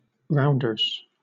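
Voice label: "rounders", British English; pronunciations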